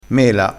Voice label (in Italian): "mela", crespinese